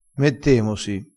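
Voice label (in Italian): "mettemosi", dialettale crespinese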